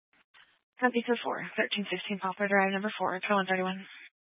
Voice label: Police Department Dispatch, scanner

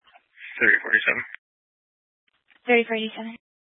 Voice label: Police Department Dispatch; Grand Junction

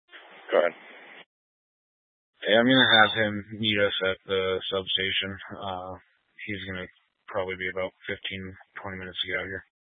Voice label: Sheriff Dispatch, scanner; Mesa County

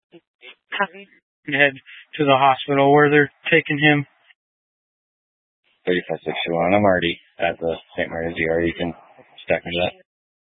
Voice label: Police Dispatch; Police 1 Dispatch

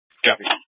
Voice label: Mesa County Sheriff Dispatch

